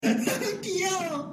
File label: Cute